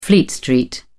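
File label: "fleet street", British English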